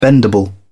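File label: "bendable", English, British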